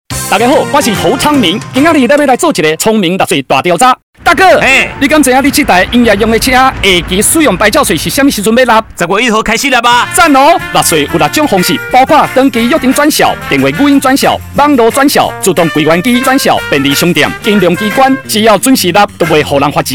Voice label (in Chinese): 營業用使用牌照稅宣導廣播 台; 102年度使用牌照稅下期開徵宣導短片臺語